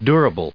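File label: "durable", US English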